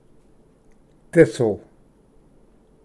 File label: "ᏥᏥ", Pronunciation; thistle